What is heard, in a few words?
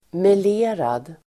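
"melerad", Swedish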